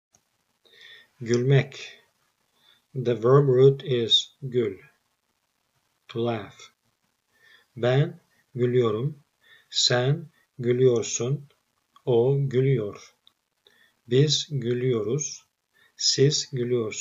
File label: present continuous tense exercise, Turkish